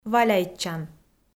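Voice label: "валяйтчан", Komi, female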